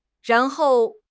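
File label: "ran2 hou4", Chinese; HSK3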